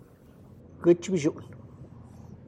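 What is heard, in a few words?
Tlacochahuaya Zapotec; wild cactus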